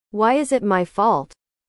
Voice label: english